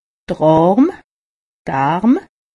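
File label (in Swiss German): "arm", Haut Rhin; medecine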